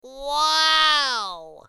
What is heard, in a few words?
"Wow!" Cartoon